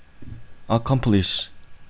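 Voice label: "accomplish", Korean, male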